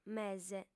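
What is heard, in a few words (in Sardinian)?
"mese", Sardinian, female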